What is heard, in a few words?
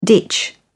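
"ditch", British English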